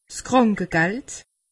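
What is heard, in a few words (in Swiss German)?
"krankegeld", Alsatian; medecine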